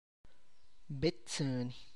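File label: tlacochahuaya, Zapotec; tick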